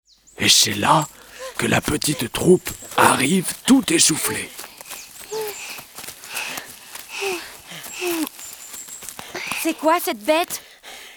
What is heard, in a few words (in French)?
Histoire